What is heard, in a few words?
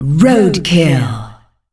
"Road Kill", announcer female; Unreal Tournament; ut2004